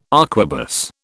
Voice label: "arquebus", British

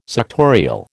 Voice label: "suctorial", American

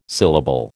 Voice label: "syllable", American

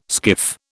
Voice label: "skiff", British

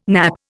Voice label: "nappe", Indian

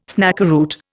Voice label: "snakeroot", Indian

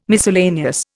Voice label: "miscellaneous", Indian

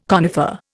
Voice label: "conifer", Indian

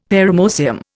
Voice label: "paramoecium", Indian; Dictionary

